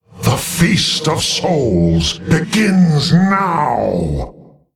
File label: Male Qiraji Play